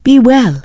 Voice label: Draenei Female Military Farewell